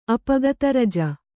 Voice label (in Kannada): "ಅಪಗತರಜ", Kannada